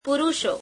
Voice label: gujarati men